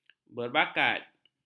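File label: "berbakat", Malay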